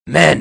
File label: men; wanted